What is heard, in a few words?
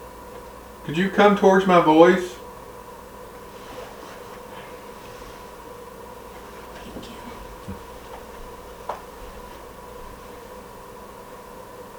Unexplained Female voice whispering (Thank you) Living Room